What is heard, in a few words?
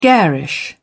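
"garish", British English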